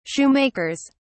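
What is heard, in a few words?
"shoemakers", British English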